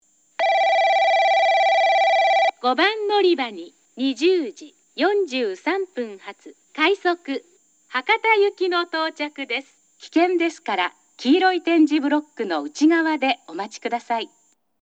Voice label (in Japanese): 5番のりば接近放送（快速 博多行き）